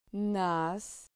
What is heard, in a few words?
"nas", Spanish syllable